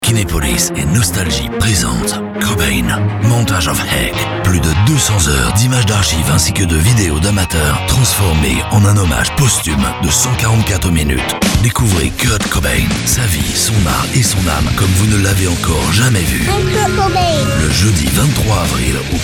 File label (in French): Radio promo; Radio Nostalgie